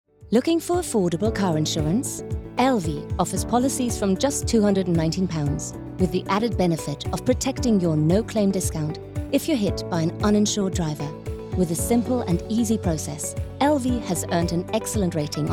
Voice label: female english and german english with undetectable accent commercial; lv